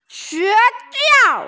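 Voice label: "xue xiao", Mandarin Chinese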